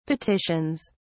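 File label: "petitions", English, British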